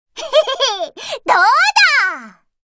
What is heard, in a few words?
Voice victory